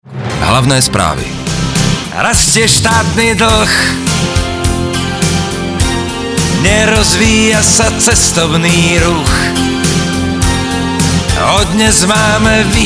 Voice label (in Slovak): radio spravy; telekom deezer